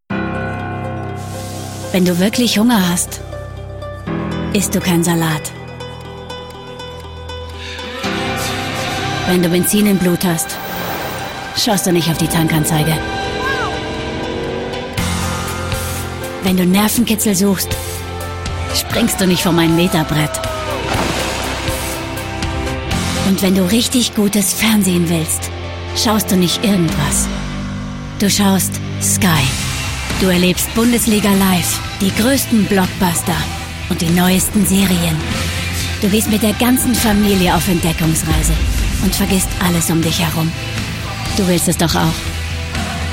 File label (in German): Kampagnentrailer, Frauen; Sky